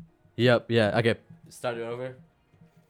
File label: recordin Mic Input; flstudio; Vocals